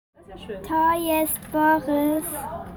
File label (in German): Sprachmemo